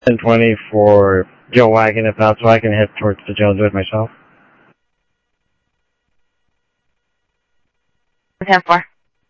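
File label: Sheriff West County, scanner audio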